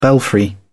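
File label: "belfry", British English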